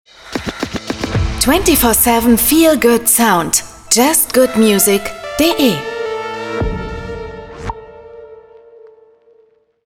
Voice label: german tv shows, german voice actors; professional German voiceover artist and voice actor

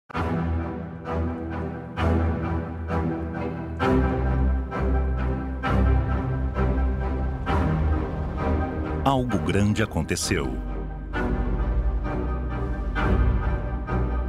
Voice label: portuguese brazilian tv shows

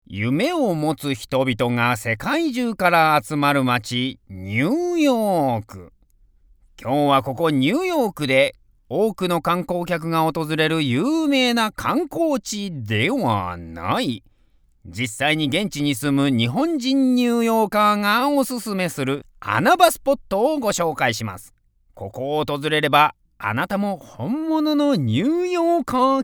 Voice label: japanese promos; acteur/artiste vocal japonais basé à New York

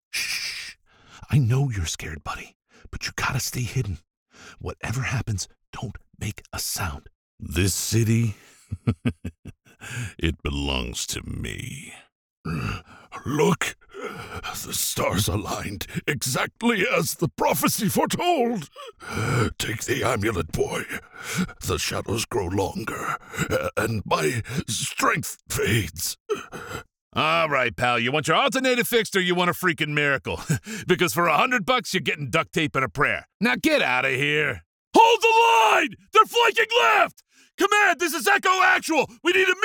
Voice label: english american

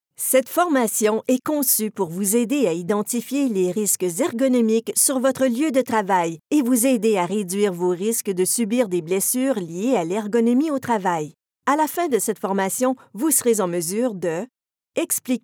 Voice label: french canadian e-learning; Eine klare, natürliche und vertrauenswürdige französisch-kanadische Synchronsprecherin

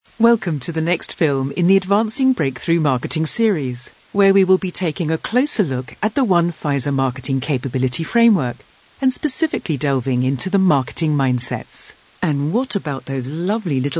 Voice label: english british e-learning

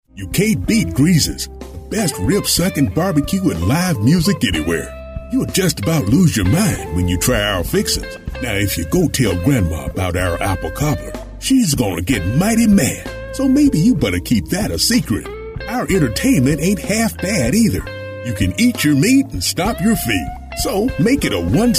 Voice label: english american

